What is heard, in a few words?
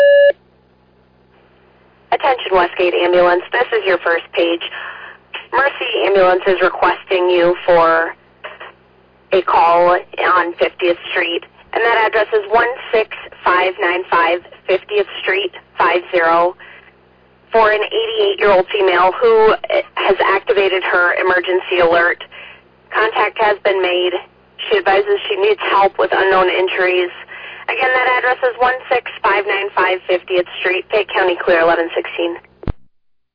Fire, dispatch; Westgate